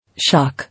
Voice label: "Shock", English